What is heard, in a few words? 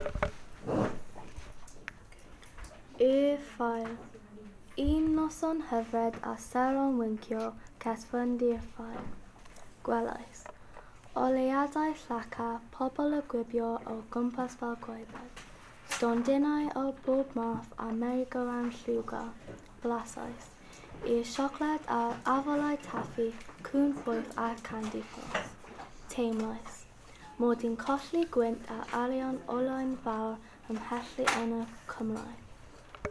Reading Aloud; DARLLEN; Level 5